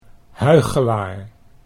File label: "huichelaar", Dutch